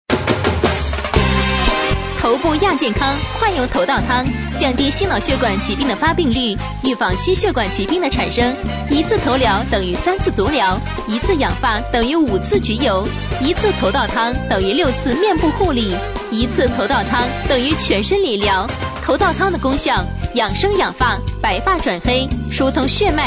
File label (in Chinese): 女声 头部亚健康; 飞碟说